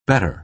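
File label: "better", American English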